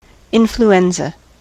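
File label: "influenza", US English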